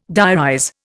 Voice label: "diarize", Indian